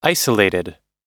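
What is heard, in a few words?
"isolated", US English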